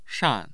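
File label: "shan4", Mandarin Chinese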